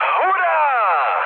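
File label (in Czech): Czech player killed enemy, mood medium; speech; War Thunder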